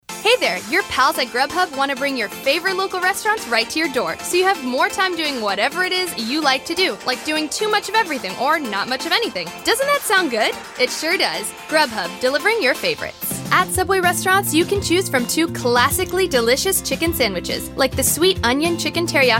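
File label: voice over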